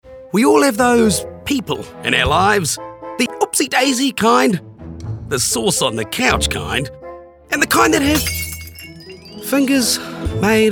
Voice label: Voice Actor

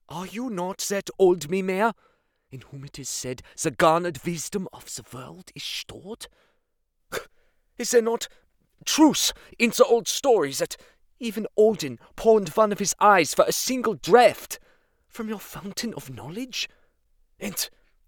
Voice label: German

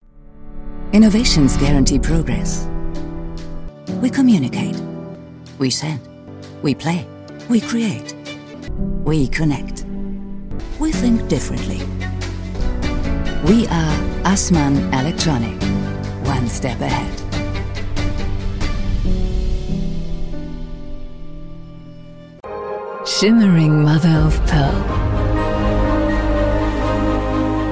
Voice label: English

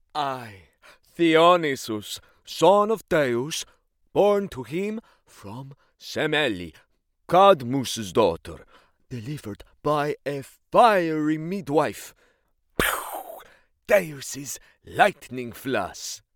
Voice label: Greek

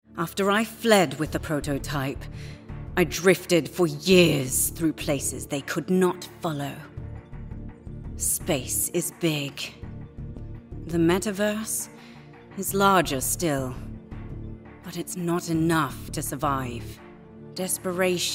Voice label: voiceover, epic, captain, scifi storytelling; quirky

